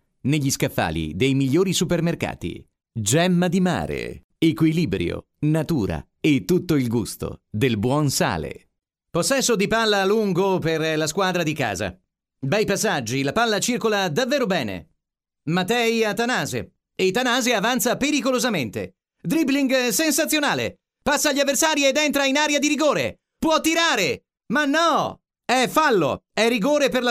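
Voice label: Italian male voice over, sales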